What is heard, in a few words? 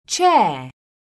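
"CHAIR", English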